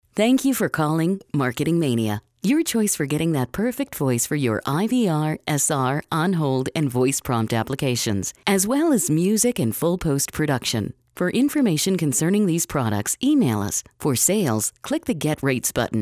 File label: Voice Sample, onhold